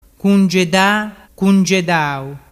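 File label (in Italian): "cungedadau", dialect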